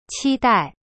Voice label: "期待" qi dai, chinese